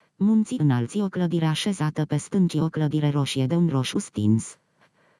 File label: synth